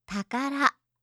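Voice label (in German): "takara", japanische Mädchennamen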